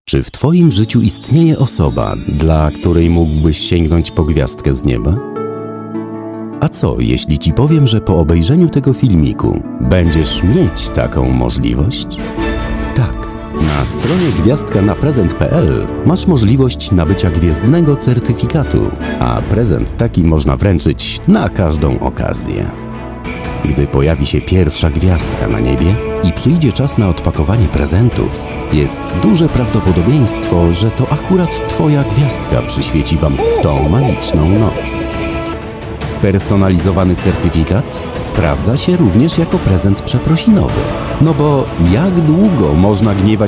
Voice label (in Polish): narracja lektora do filmu